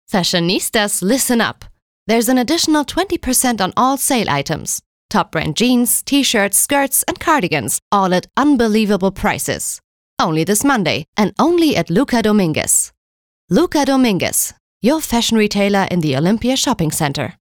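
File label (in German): US English, Dynamisch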